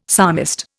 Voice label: "psalmist", Indian; Dictionary

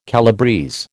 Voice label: "calabrese", American

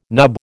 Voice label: "nub", American

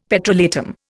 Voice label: "petrolatum", Indian; Dictionary

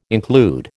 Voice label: "include", American

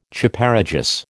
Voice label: "Chaparejos", American

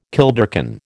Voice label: "kilderkin", American

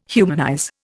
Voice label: "humanize", Indian

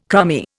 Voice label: "crummy", Indian

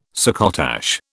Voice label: "succotash", British

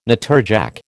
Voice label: "natterjack", American

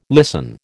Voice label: "listen", American

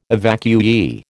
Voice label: "evacuee", American

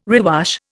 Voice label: "rewash", Indian

